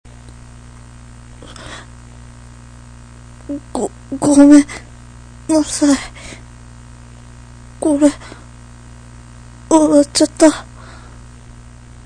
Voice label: sorry m